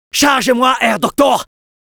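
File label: Medic activate charge, French; Charge-moi, Herr doktor.; Robot Medic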